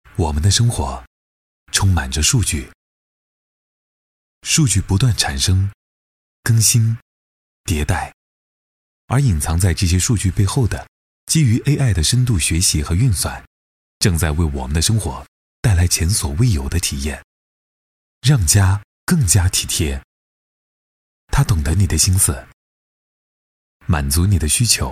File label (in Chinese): 男 宣传片 科技宣传; C-Life